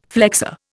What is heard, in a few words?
"flexor", Indian